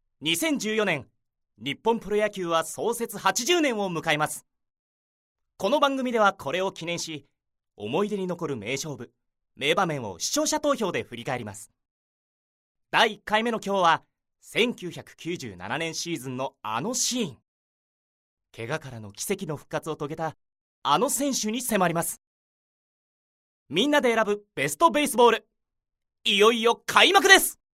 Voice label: narration